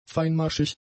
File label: "feinmaschig", german; adjectives